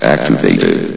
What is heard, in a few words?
"activated", vox; Source Engine; bshift